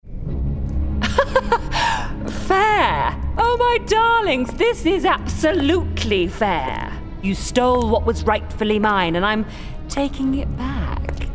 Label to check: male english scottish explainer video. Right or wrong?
Wrong